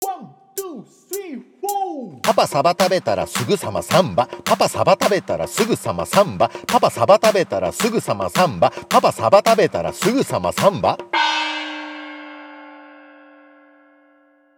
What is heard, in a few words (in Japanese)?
早口言葉リズム（中級編）